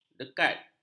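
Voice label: "dekat", Malay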